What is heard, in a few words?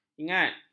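"ingat", Malay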